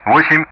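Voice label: Speech Russian; DCS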